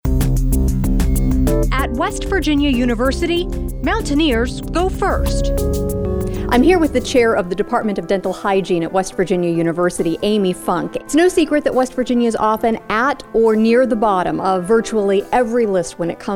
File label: radio feature; wvu today